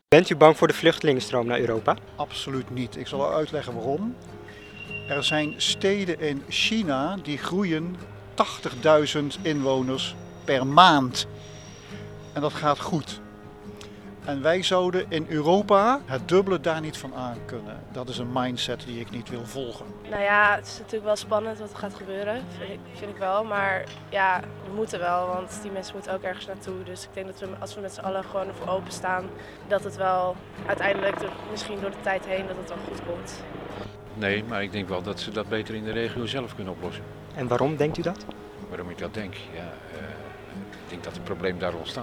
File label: Angst Voxpop